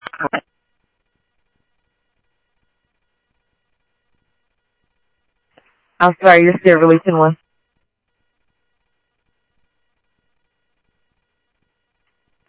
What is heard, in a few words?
Sheriff Central, scanner audio trunk